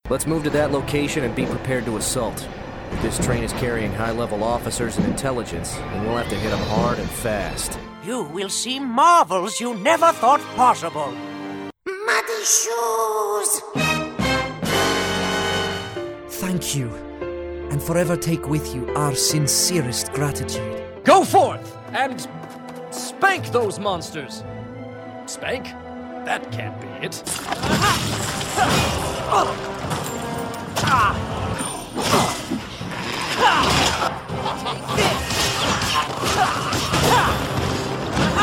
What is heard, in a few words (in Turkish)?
Amerikan Ingilizcesi Erkek